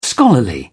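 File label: "scholarly", British English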